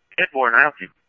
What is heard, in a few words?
Police, scanner